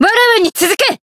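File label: limitbreak, Japanese; hiina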